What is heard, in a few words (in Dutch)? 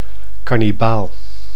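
"kanibaal", Dutch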